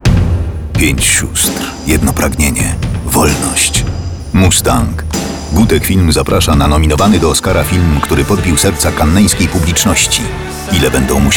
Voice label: spot radio; Mustang; spot radiowy